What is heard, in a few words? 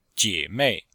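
"jie3 mei4", Mandarin Chinese; Cours de Chinois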